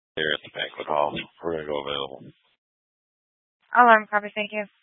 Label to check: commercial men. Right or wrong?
Wrong